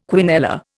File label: "quinella", Indian